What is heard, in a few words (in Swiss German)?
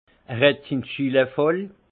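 "schillee", alsace Haut-Rhin; vin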